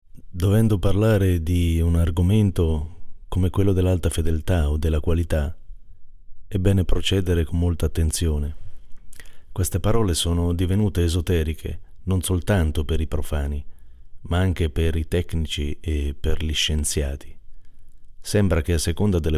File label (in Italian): lettura pre scheda; Amplificatore